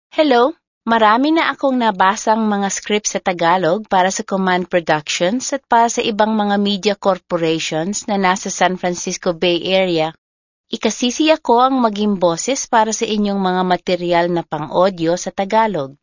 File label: Tagalog